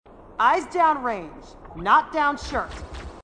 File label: female marine, sassy